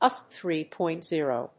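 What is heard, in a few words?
"USB", English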